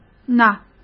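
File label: "哪", Mandarin Chinese; hsk; chinese-flashcards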